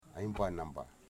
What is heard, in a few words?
"woman", Wamut